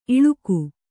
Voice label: "ಇಳಿಕು", Kannada